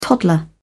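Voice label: "toddler", British English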